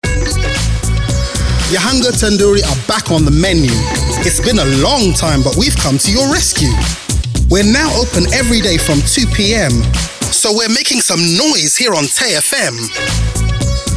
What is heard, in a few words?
Commercial